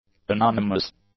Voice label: "anonymous", English; adjectives

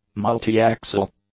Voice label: "multi-axle", English; adjectives

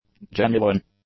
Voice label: "genuine", english; adjectives

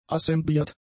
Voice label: "assembliert", German; adjectives

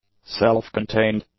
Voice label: "self-contained", English; adjectives

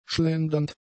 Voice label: "schlendernd", German; adjectives

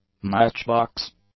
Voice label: "match box", English; nouns

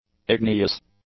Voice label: "igneous", English; adjectives

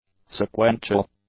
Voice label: "sequential", English; adjectives

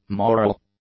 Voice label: "moral", English; adjectives

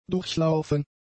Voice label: "durchlaufen", german; adjectives

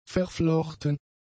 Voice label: "verflochten", German; adjectives

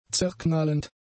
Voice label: "zerknallend", German; adjectives

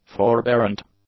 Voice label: "forbearant", english

